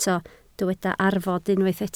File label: "arfod", Welsh